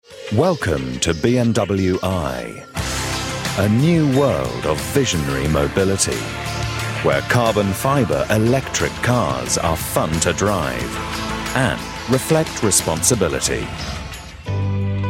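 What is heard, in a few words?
British corporate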